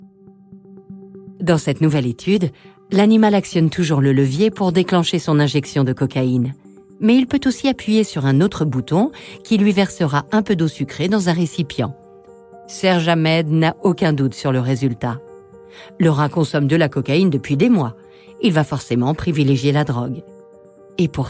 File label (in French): narration le sucre